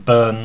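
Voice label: "ben", Mandarin Chinese pinyin; Mandarin Chinese Pronunciation; pinyin sounds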